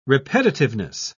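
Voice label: "repetitiveness", British English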